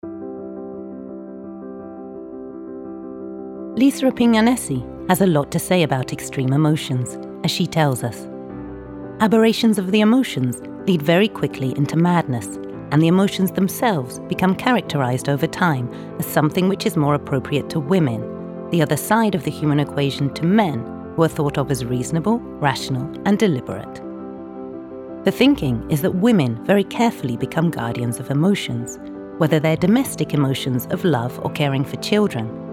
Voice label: INSTITUTIONAL, ENGLISH UK FEMALE

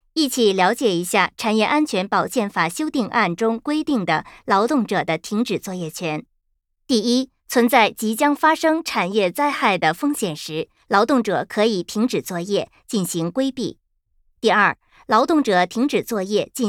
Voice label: CHINESE FEMALE

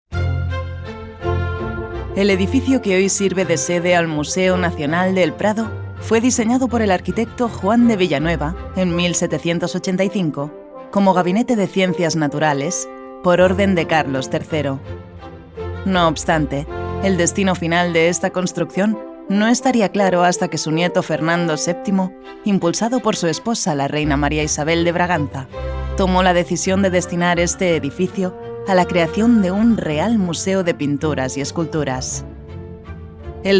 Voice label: corporate, spanish, female

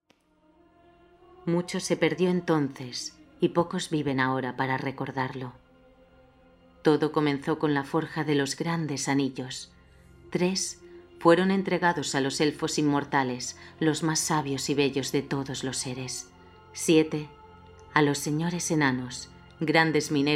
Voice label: NARRATION, SPANISH FEMALE

